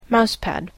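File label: "mousepad", English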